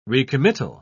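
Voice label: "recommittal", British English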